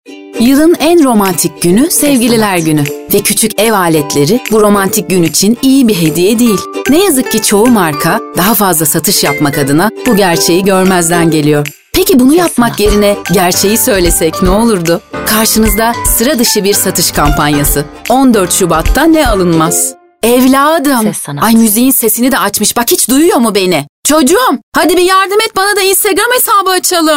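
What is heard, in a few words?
Turkish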